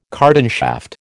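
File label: "cardan shaft", American English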